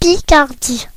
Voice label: "picardie", voices fr; france region; gcompris